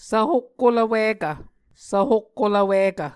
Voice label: "svhokkolv wikv", Muscogee